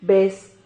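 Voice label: "bez", Spanish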